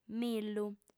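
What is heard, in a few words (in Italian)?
"millu", lingua sarda, fem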